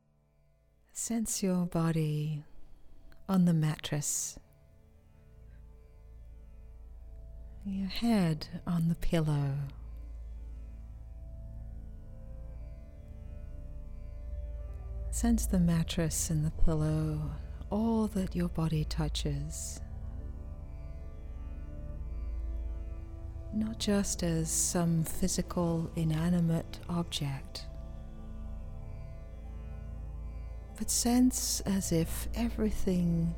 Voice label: Bedtime Meditation; Ocean of Bliss